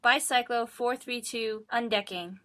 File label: bicyclo[4.3.2]undecane